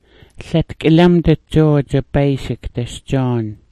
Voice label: "dit", smalgyax

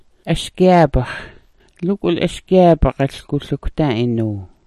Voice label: "asgyaabax", Smalgyax

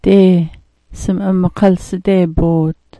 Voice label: "dee", smalgyax

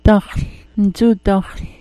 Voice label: "daxl", smalgyax

